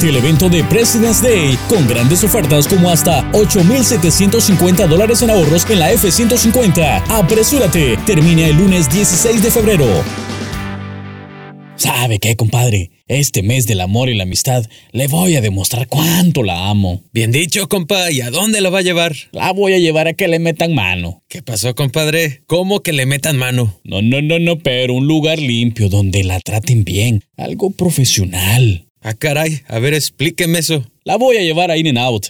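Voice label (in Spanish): Voiceover, comerciales de radio; Servicio de voiceover y producción de comerciales de radio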